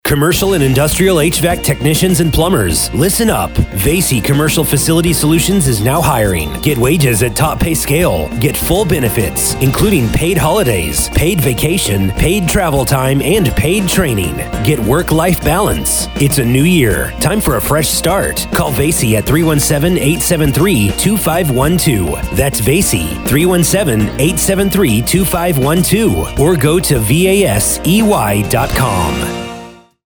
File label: Radio Recruitment Ad; WTTS